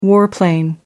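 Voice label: "warplane", British English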